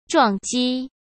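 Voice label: "撞击" Mandarin Chinese; chinese flashcards; hsk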